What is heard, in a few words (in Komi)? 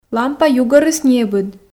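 лампа юргӧрыс небыд, Komi, female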